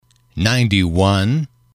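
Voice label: "ninety one", English, male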